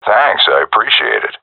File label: thankful; agents